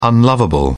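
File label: "unlovable", English, British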